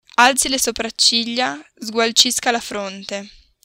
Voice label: "sopracciglia", Italian

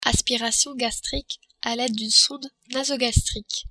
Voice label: "aspiration gastrique", French

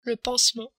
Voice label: "pansement", french

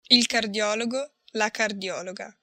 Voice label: "cardiologo", Italian

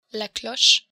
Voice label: "cloche", french

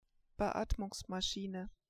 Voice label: "beatmung", german

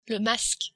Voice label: "masque", French

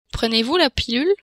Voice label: "pilule", French

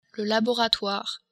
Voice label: "laboratoire", French

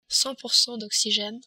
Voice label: "oxigene", French

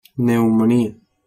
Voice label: "neumonia", spanish

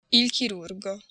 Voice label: "chirurgo", Italian

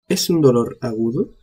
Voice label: "agudo", Spanish

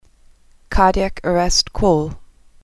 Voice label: cardiac arrest call, english

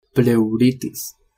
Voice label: "pleuritis", spanish

